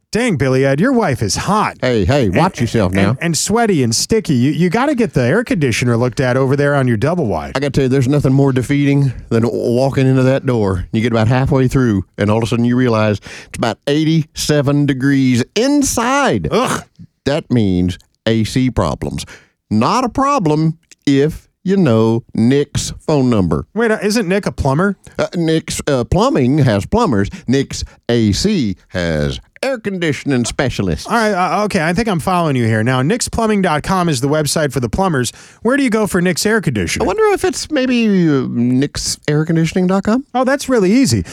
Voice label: RADIO AD; nicks plumbing radio ads